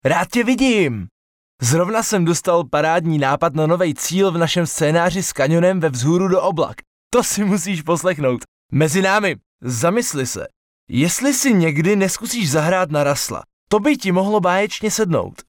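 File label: Male Czech drama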